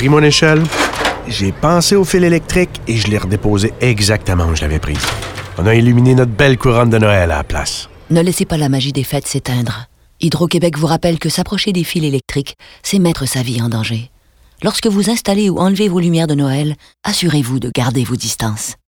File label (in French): campagne radio sapin noel securite fr